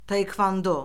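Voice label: "taekvando", Azerbaijani; Orfoqrafiya Lüğəti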